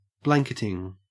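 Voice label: "blanketing", English, Australian accent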